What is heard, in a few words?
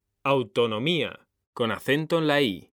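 "autonomía", Spanish, male; mekanta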